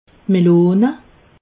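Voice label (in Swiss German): "melone", Alsatian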